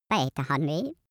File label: cartoon male talk short